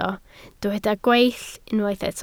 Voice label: "gweill", Welsh